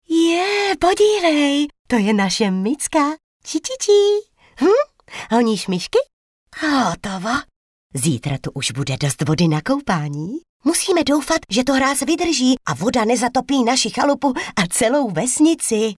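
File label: female Czech drama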